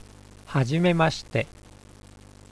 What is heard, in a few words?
"hajime-mashi-te", Japanese; shoukai